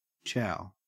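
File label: "chow", English, Australian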